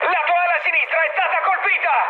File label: left wing mood high, speech it; War Thunder